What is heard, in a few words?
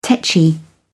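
"tetchy", English, British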